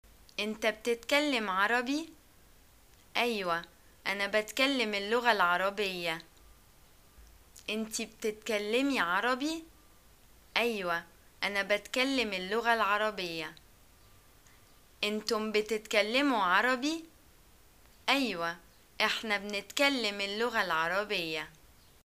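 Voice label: do u speak arabic, Egyptian Arabic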